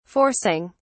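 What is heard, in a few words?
"forcing", en, uk British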